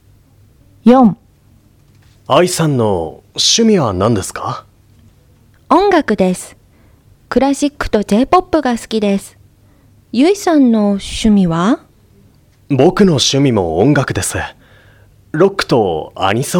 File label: Conversation; tobira